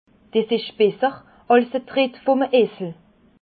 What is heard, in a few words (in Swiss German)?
"tritt", Alsace